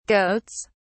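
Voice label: "goats", British English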